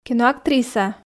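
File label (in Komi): "киноактриса", Komi, female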